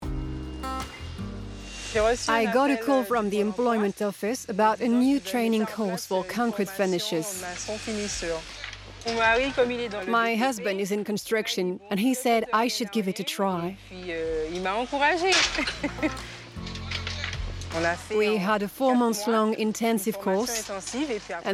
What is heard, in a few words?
voice over anglais; VINCI